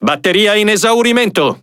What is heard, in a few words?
Speech Alarms Sub Battery Low, IT; World Of Warships